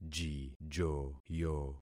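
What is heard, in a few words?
"jijoyo", concatenated syllables; Affixing experiment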